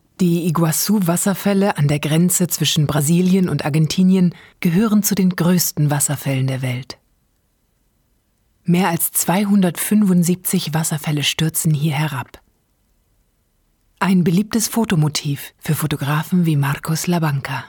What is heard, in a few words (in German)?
Doku, Sprecher; weibl.; Nordischer Dialekt; Frauen; Spezialitäten: Voiceover, Nordischer Dialekt, Audiodeskription, Hörbuch; Berlin